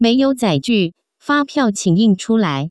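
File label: meiyou zaiju qing yin, Taiwan Mandarin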